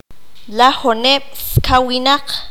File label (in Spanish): "treinta", Q'anjob'al